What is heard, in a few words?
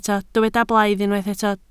"blaidd", Welsh